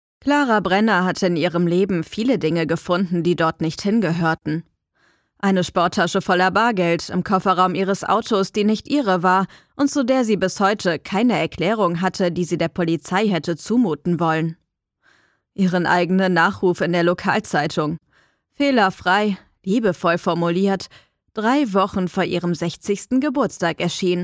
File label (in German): Hoerprobe, sprecher